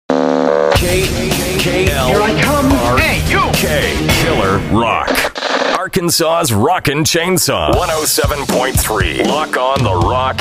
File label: radio imaging voice over; voice artist; cinematic movie trailer